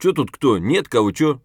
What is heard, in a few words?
scav mutter, voice; Escape from Tarkov; scav5